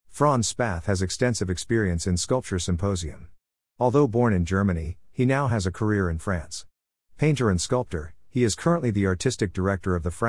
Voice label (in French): anglais